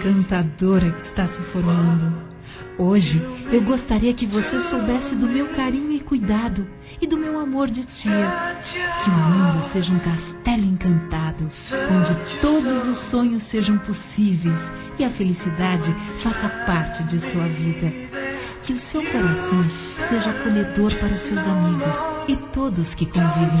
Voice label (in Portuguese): Aniversário 15 Anos Sobrinha Feminino; Aniversários; Telemensagens